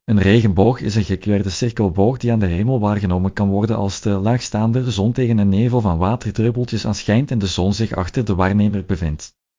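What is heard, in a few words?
speaker, American English; piper-voices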